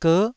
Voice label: "ger9", Taiwanese syllable